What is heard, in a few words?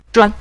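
"zhuan", Mandarin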